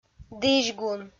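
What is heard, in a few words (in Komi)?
"дізьгун", Komi, female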